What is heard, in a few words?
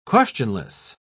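"questionless", British English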